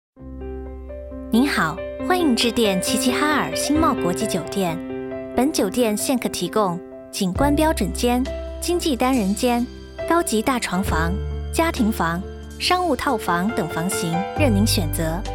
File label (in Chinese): 彩铃